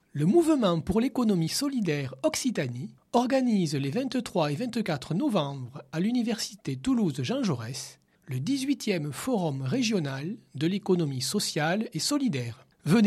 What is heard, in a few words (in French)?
Spot Radio; FRESS 2018; Occitanie